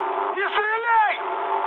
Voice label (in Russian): player friendly fire, mood high, speech ru